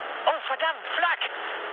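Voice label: German damaged aa mood med, speech; War Thunder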